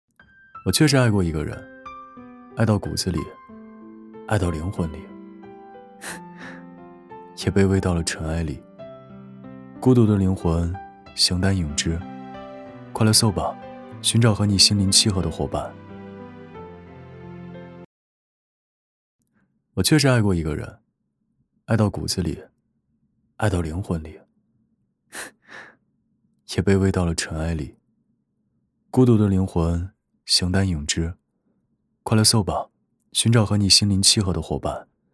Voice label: 男 旁白; Soul; 爱过一个人

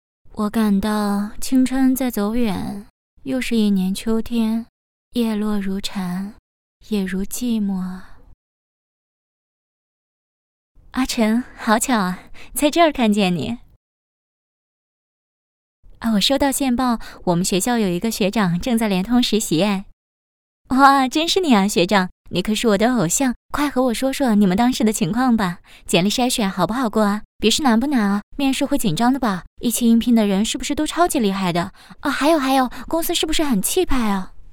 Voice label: 短剧配音; 网申港台剧

